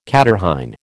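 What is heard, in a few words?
"catarrhine", American English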